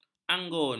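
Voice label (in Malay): "anggun", Malay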